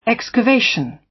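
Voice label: "excavation", British English; Time, history; Thời gian, lịch sử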